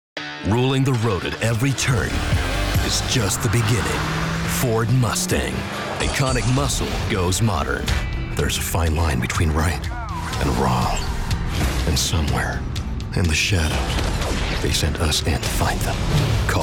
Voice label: Commercials